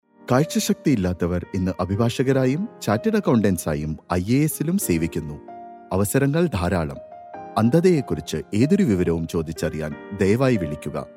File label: male malayalam voice over talent sales